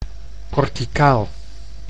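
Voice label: "corticaal", Dutch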